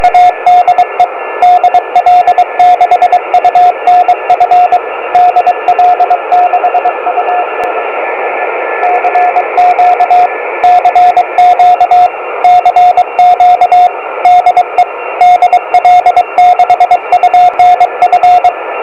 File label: cq call